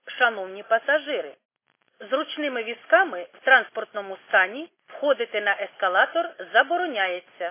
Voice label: khs st female, subway station